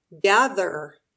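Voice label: "gather", English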